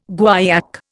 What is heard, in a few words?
"guaiac", Indian